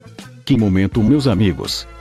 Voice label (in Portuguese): corinthians 1x0 palmeiras narracao mil grau